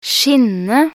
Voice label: "skinne", nb NO

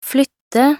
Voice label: "flytte", nb NO

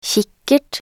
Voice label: "kikkert", nb NO

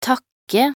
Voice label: "takke", nb NO

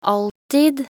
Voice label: "alltid", nb NO

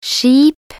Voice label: "skip", nb NO

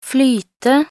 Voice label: "flyte", nb NO

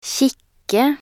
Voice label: "kikke", nb NO

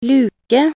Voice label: "luke", nb NO

